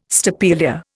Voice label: "stapelia", Indian

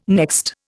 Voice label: "next", Indian

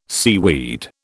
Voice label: "seaweed", British

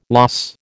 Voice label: "loss", American

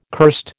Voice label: "cursed", American

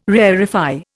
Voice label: "rarefy", Indian

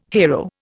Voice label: "hero", Indian

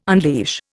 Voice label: "unleash", Indian

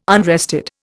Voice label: "unrested", Indian

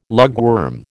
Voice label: "lugworm", American

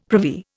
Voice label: "privy", Indian; Dictionary

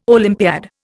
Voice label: "Olympiad", Indian

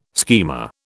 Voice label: "schema", British

